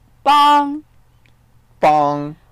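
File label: "bang1", Mandarin Chinese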